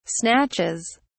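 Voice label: "snatches", British English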